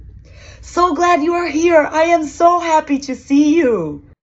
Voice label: Excited Es

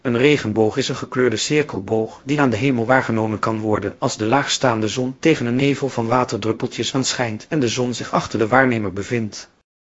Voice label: speaker, nl NL

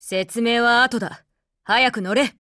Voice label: PHRASE JP; girlsfrontline

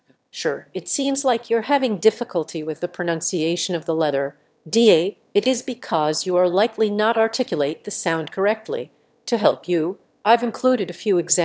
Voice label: Airport Announcements; S2S-Bench; SpeechGPT; noise

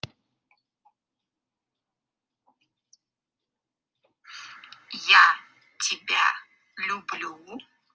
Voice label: russian i love you

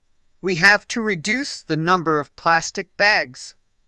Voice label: anger; emov db; MLS; soundstorm; libritts

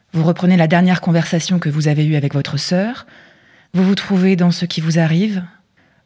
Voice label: 16khz, fra adult female

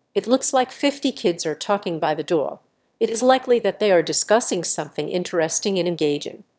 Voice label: answer, emotion

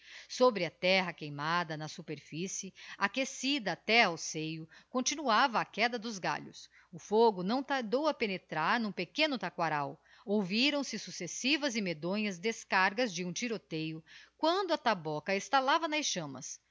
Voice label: portuguese

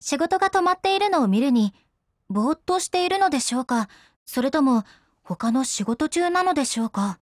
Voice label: LOADING JP; girlsfrontline

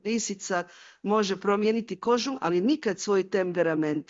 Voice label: croatian

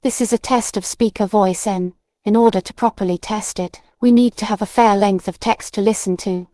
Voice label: speaker en

